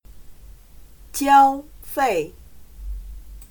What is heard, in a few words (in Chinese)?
"交费", chino